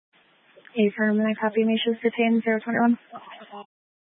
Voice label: Police Department Dispatch; Grand Junction